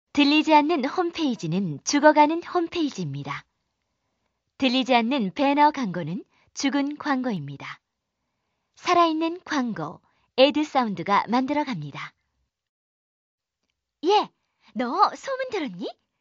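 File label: Korean female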